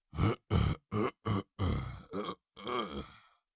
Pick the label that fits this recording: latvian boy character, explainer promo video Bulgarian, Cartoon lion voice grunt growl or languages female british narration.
Cartoon lion voice grunt growl